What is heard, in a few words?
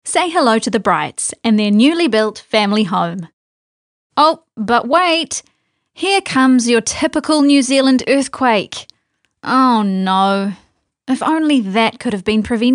English NZ